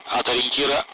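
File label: Speech Sound Russian Common Allied Flight; DCS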